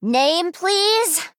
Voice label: Female Human Attack